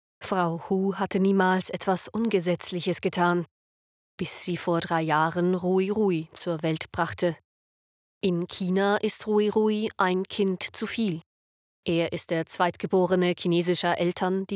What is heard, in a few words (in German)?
Dokumentation; China Ein Kind Politik